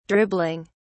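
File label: "dribbling", British English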